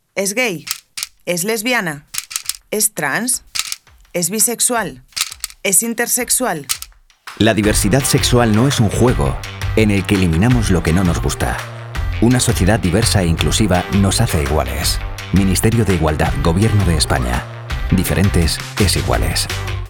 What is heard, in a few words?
Radio; DifEsIgual